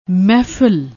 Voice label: "mehfil", Punjabi; ਮਹਿਫ਼ਲ

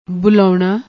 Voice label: "bulaouna", Punjabi

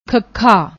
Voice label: "khakha", Punjabi; ਖੱਖਾ

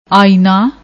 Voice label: "ayana", Punjabi

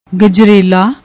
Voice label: "gajrela", Punjabi; ਗਜਰੇਲਾ

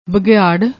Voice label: "baghiaarr", Punjabi

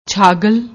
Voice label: "chhagl", Punjabi; ਛਾਗਲ

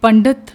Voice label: "pandet", Punjabi

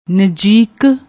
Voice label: "najeek", Punjabi; ਨਜੀਕ

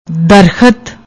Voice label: "darkhat", Punjabi; ਦਰਖਤ

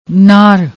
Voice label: "naar", Punjabi

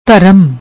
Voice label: "dharm", Punjabi

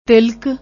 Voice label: "tilak", Punjabi

